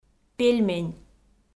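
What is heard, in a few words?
"пельмень", Komi, female; audio dict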